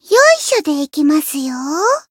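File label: Voice limit break, Japanese; soira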